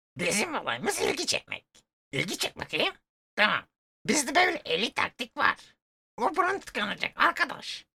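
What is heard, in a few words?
Turkish, male, character; voice-over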